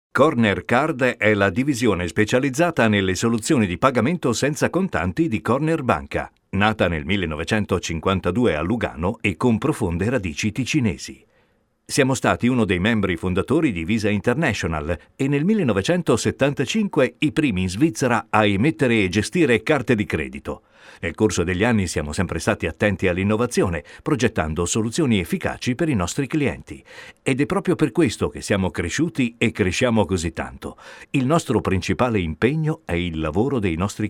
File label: spot; CornerBanca